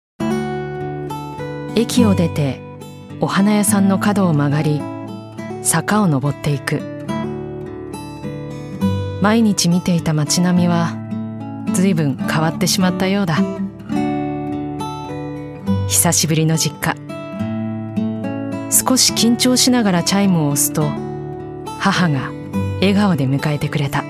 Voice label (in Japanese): ナレーション; shibuya